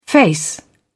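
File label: "face", British English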